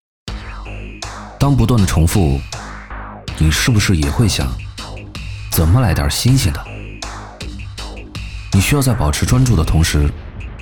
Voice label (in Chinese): 男 轻快专题 轻快活泼; 质感大气; 大气浑厚